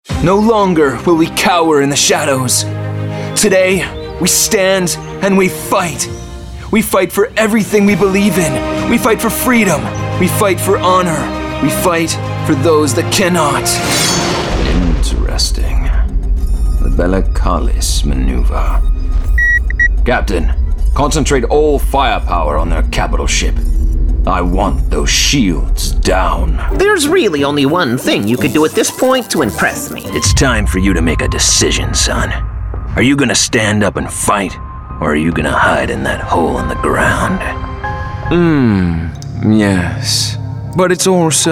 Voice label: Character